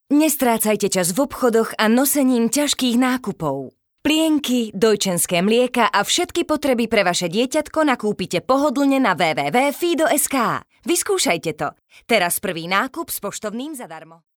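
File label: Slovak, female, commercial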